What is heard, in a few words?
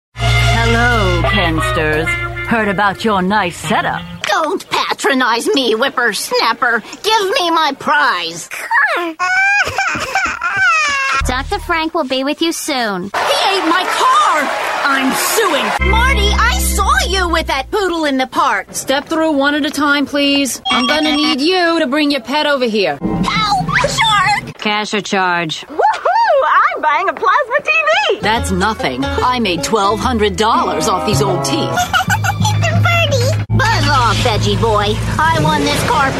Animation